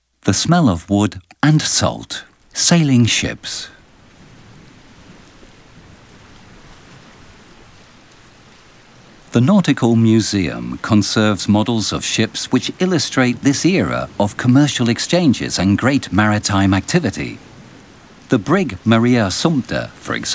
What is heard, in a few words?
audioguia english